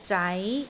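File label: "zai2", Cantonese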